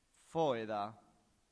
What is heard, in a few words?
"foeda", Latin; santillana